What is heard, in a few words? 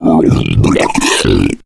male boomer alert; source engine; left 4 dead 2